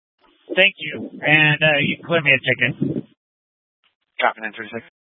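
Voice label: Incident Tactical 3, Scanner audio recording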